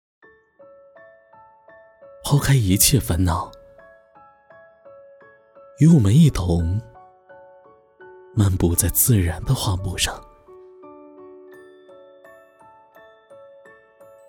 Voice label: 文艺走心质感广告心境旅行

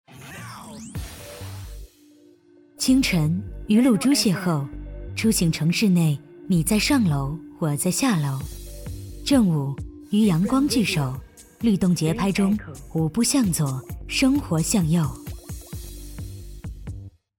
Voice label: 电台质感女声

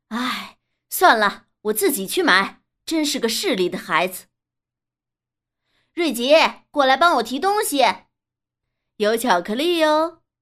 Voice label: 妈妈严厉

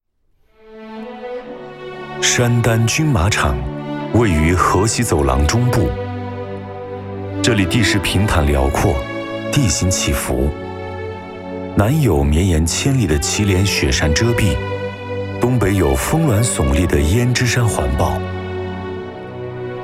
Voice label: 纪录片; 山丹河西走廊

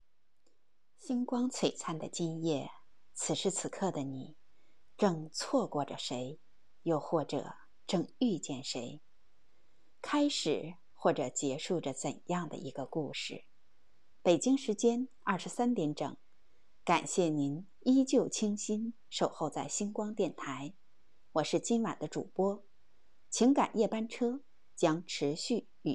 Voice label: 情感电台

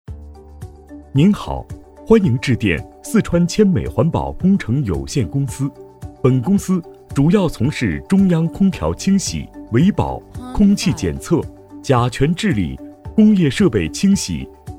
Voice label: 四川; 四川谦美环保试混

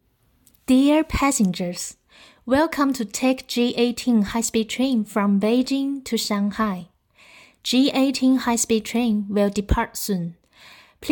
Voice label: 高铁配音; 北京高铁

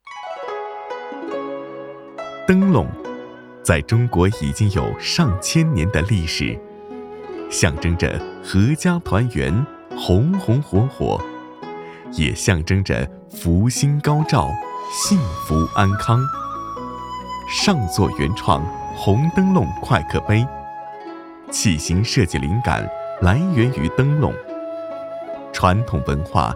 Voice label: 广告

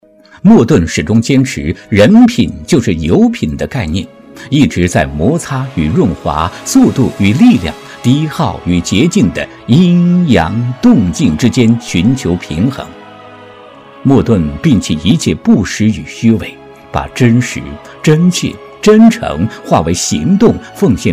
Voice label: 男声企业形象专题配音大气内涵丰富不事张扬

